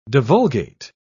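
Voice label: "divulgate", British English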